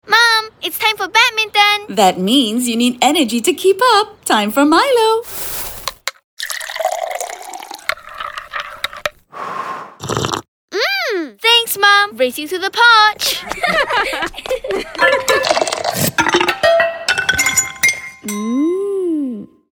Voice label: Radio Evening English; Time For Milo